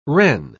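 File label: "wren", English pronunciation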